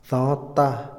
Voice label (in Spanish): grande, Otomí, hombre; INALI